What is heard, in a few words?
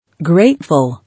"Grateful", English